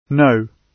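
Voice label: "know", British English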